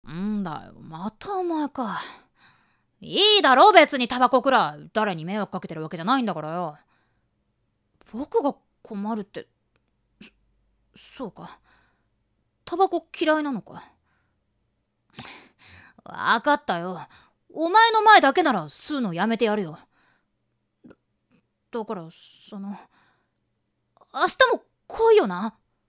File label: woman